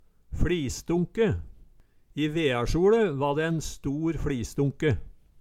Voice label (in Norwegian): "fLisdonke", Numedalsmål